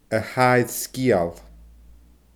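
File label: "a tha air sgeul", Scottish Gaelic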